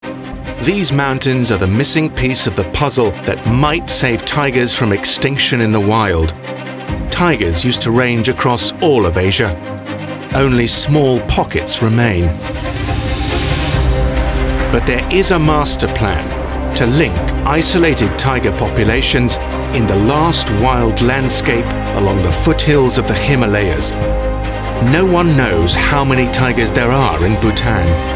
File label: Narration